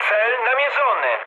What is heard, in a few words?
near waypoint mood high, speech pol; War Thunder